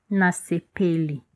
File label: meet, lingala